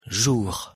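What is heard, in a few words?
pronunciation "jour", French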